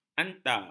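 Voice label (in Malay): "anta", Malay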